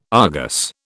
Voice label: "Argus", British